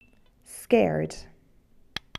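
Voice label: "scared", English; adj; fichiers sons du vocabulaire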